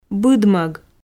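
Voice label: "быдмӧг", Komi, female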